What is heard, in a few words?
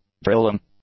"drilling", English; nouns